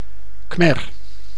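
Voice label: "Khmer", Dutch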